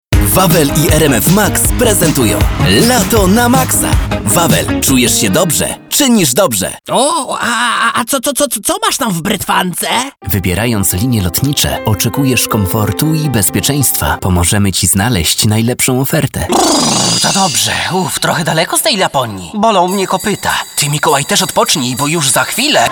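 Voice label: voice male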